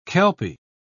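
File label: "kelpy", British English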